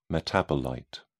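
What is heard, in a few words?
"metabolite", English, British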